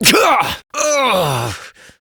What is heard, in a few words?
Pain Adult Operator, en; Warframe; Dialog Barks